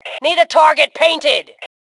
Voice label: Target Need, Female; T2 Female 04

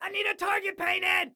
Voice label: target need, excited guy

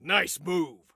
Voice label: Nice Move, Soldier Male

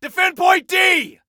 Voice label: DEFEND, Male; T2 Male 04

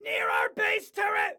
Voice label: Warn Enemy Near Our Base Turret, Excited Guy

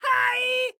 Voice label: "Hi", Excited Guy

